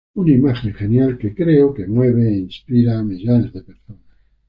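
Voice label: "creo", Spanish; mozilla voice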